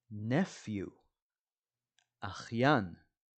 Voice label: "nephew" – "אחיין"